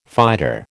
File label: "fighter", American